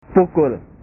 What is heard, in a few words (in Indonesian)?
"pukul", bahasa